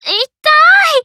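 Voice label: Voice Emotion Pain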